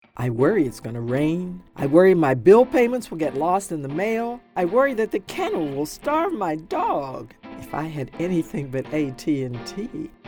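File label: COMMERCIAL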